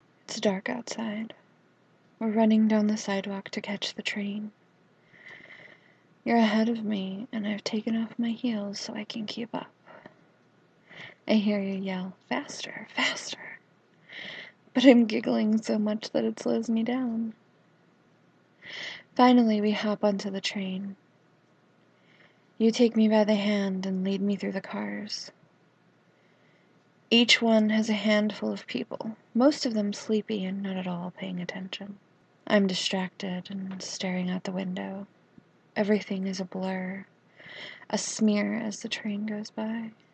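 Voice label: lady noises, story time, female; F4M; On the Train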